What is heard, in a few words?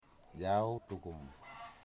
"my house", Wamut